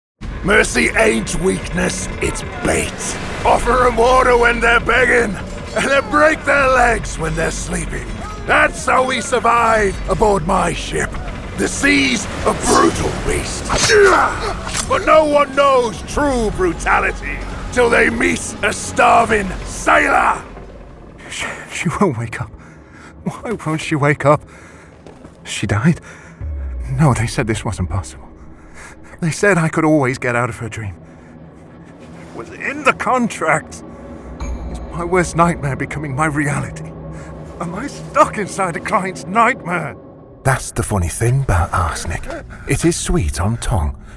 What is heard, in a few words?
Gaming Showreel; gaming animation demo production